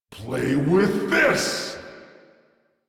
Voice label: Tactical, Battle Barks